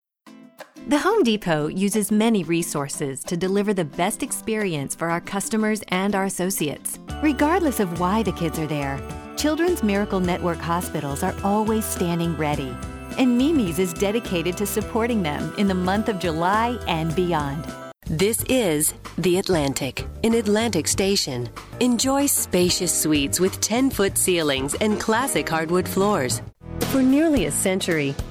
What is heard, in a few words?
women narration